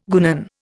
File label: "guenon", Indian